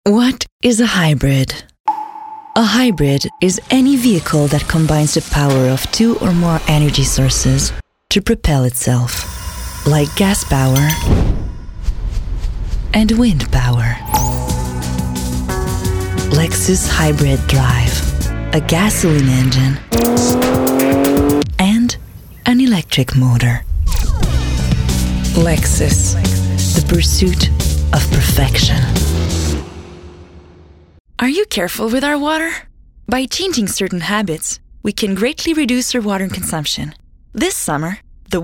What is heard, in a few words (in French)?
Voix anglais